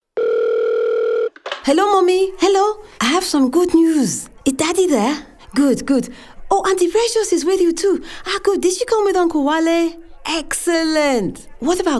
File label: Commercial; Country Pass